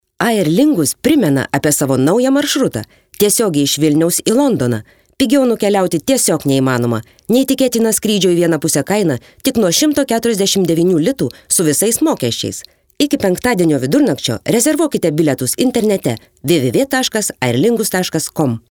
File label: lithuanian female